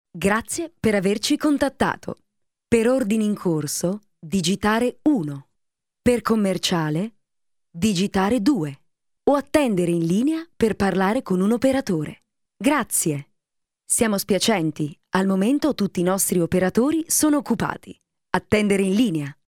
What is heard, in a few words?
italian female telephone prompts, Native Italian Female Voiceover